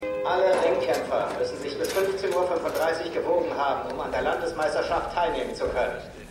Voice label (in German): Durchsage; Bluff City Law